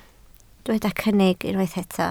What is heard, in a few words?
"cynnig", Welsh